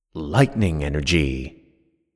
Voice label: Lightning Energy Voiceover